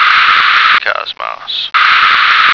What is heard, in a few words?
"cosmos", American